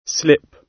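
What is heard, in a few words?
"slip", British English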